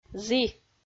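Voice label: "зі", Komi-Zyrian, female; audio dict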